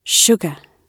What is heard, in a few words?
"sugar", gb